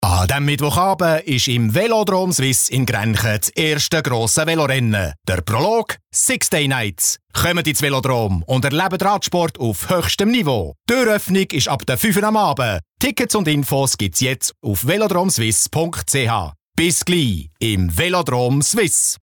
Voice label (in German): Werbung CH BE; flippig frech verspielt